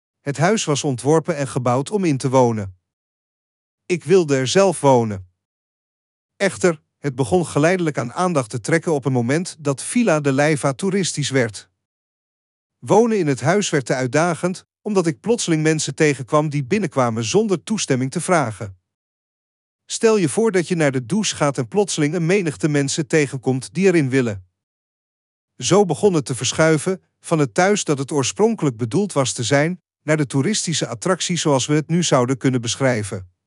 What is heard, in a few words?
Dutch